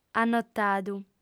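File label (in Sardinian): "annotadu", lingua sarda; fem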